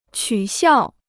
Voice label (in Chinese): "取笑", Chinese; qǔ xiào; to tease; to make fun of